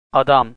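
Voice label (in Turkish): "adam", Turkish, male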